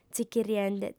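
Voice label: "tzichirriende", Sardinian, female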